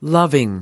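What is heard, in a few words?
"loving", US English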